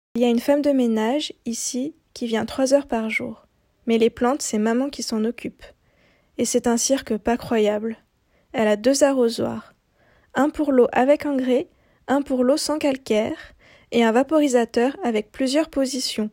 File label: French, Female